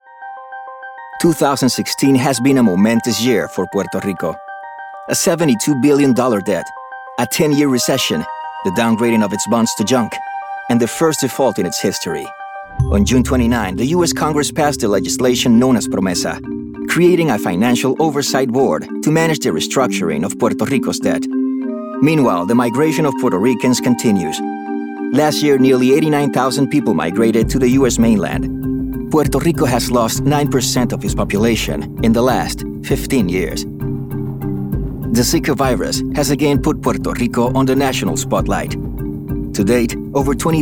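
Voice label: male, Latin American Spanish, accented English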